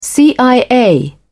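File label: "cia", British English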